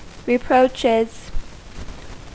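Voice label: "reproaches", US English; pronunciations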